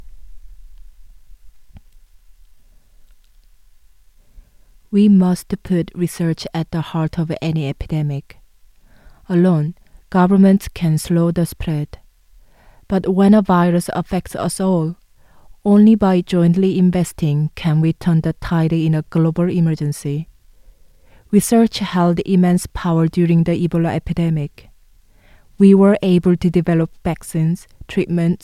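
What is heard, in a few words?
Korean, English Accent; GoLocalise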